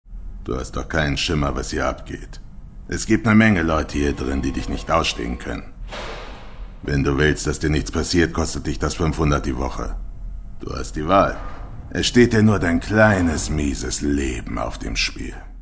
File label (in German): Gefaengnis Szene Synchron